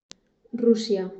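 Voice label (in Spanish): "Rusia", Spanish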